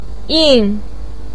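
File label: "yin4", Mandarin Chinese, pinyin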